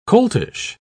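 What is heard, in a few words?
"coltish", British English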